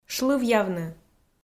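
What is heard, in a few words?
"шлывъявны", Komi, female; kpv audio dict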